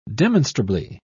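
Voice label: "demonstrably", British English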